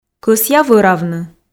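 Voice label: Komi sentences, female; кӧсъя вӧравны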